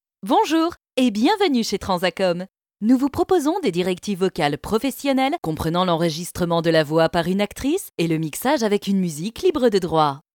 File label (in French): Souriante, actrices